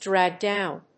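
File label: "drag down", English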